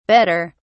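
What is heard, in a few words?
"bedder", English, British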